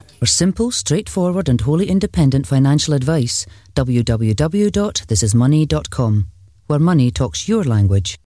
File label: Clear, Straight, Informative; This Is Money